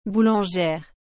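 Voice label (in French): "boulangère", French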